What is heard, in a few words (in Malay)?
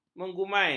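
"mengumai", Malay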